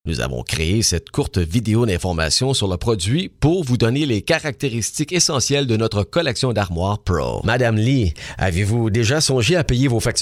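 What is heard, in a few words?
French Canadian, male, corporate narration; accent of canada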